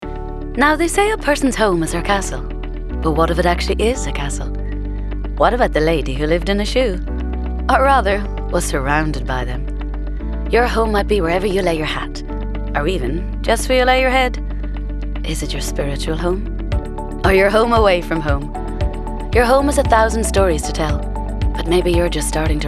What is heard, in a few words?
Commercial; Bank of Ireland